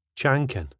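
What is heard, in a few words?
"chankin", Scottish English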